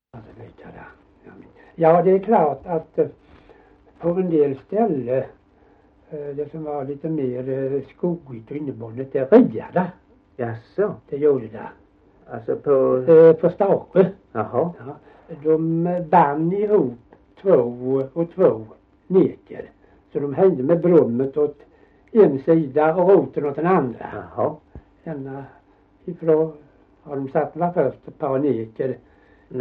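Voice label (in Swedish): Gällared Halland, dialekter; Folkminnen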